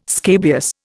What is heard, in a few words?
"scabious", Indian